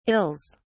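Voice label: "ills", British English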